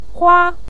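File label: "hua", Mandarin Chinese, neutral tone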